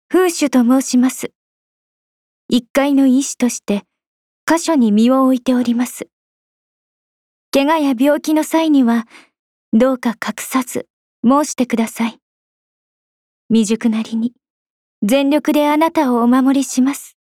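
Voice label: voice Japanese; Fushu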